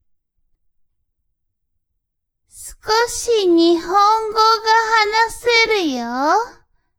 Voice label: woman